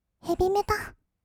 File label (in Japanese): ヘビメタ, kawaii voice, 音楽ジャンル; ASMR; 1200 kawaii voice!!